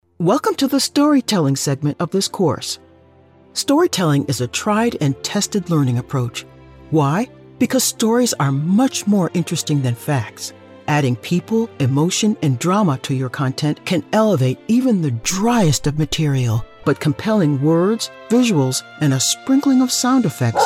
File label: eLearning